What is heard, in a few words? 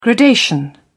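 "gradation", English, British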